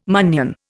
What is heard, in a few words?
"munnion", Indian